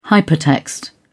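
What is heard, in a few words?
"hypertext", British English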